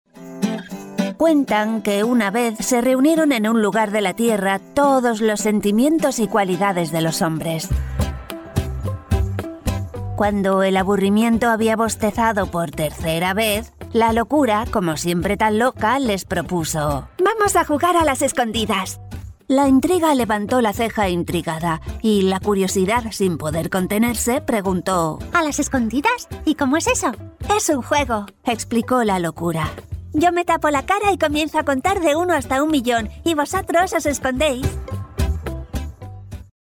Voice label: Spanish female child characters, Native European Spanish Accent